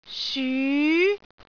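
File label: "syu2", Cantonese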